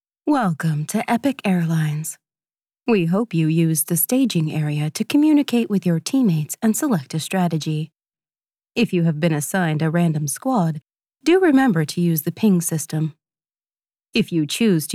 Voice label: Airlines Announcement; Epic Airlines